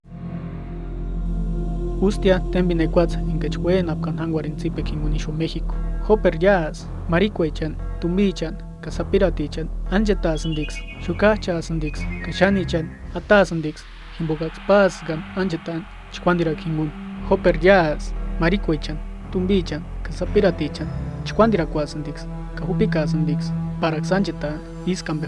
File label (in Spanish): purpecha; Trata Sexual